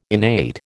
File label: "innate", American